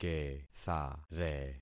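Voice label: "kethare", concatenated syllables; Affixing experiment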